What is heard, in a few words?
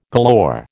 "galore", American